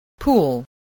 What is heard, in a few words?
"pool", English